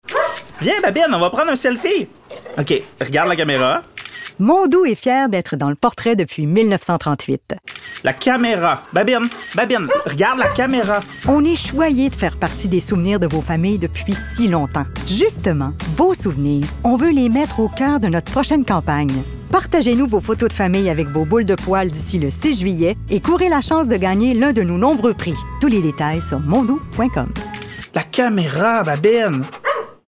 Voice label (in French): Publicité; Mondou